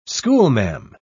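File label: "schoolma'am", British English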